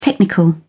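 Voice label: "technical", British English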